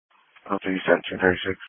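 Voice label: Police Patrol Dispatch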